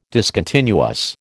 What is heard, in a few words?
"discontinuous", American